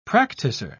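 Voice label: "practicer", English, British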